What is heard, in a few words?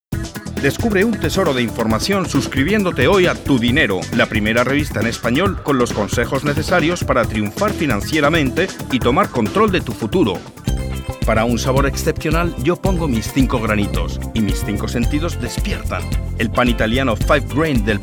Spanish, spanish males